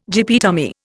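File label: "gyppy tummy", Indian English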